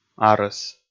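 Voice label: "арӧс", Komi, male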